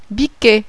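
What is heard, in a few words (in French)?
"biquet", French; MAMMIFÈRE; animal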